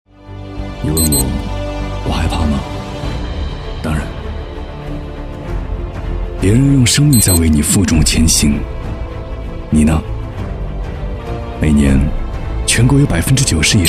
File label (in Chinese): 品牌片消防火